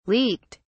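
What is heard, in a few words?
"leaked", English, British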